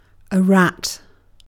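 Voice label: "rat", British English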